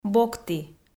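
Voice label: "бокті", Komi, female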